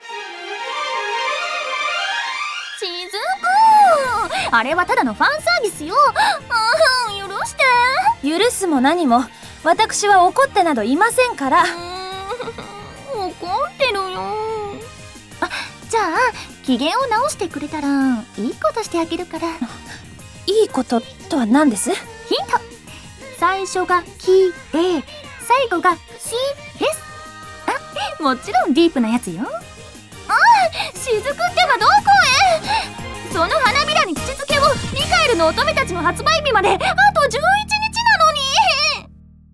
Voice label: Voice Drama